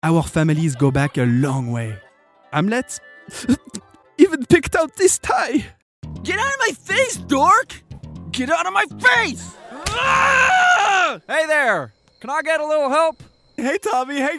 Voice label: male English USA and French English with French accent and USA accent